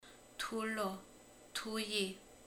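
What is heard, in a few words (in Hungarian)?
"kövér", Hungarian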